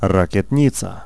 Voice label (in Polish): Rocket L, vocals pl; fan made